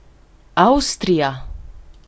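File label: austria, Swedish; gcompris-data; geography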